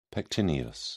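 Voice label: "pectineus", British English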